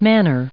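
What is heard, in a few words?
"manner" English, American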